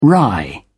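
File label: "wry", English, British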